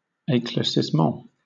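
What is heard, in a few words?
"eclaircissement", British English; pronunciation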